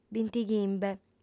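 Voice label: "bintichimbe", female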